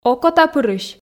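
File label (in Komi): "окотапырысь", Komi, female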